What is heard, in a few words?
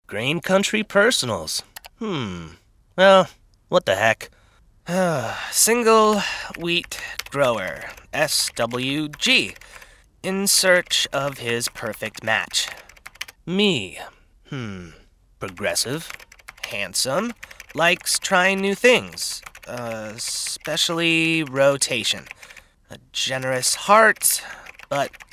Radio; Cleansweep